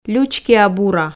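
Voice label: "лючкиа-бура" Komi, female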